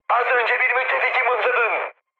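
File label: Turkish player killed ally, mood high, speech; War Thunder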